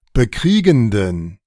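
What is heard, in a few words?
"bekriegenden", German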